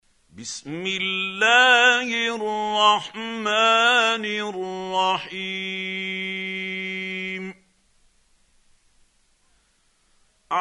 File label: quran, Hussary, tafseer; Abasa